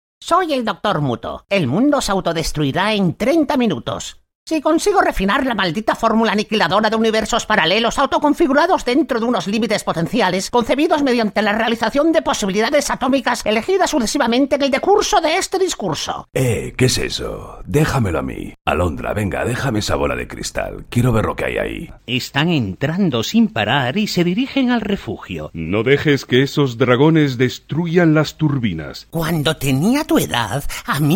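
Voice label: European Spanish Characters; GoLocalise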